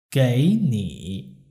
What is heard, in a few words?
"geini", Chinese